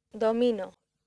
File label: "domino", accent; laboratori fonetica; practiques accent